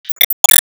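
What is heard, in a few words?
Voice Emotion Reply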